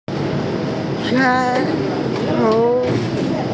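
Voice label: "cai3hong2", Mandarin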